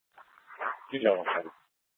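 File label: Scottsdale Police Department South Dispatch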